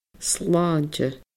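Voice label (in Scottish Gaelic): "slàinte", Scottish Gaelic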